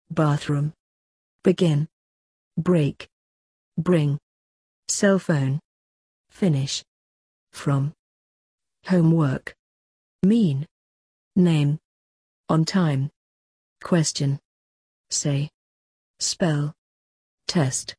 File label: uk learning english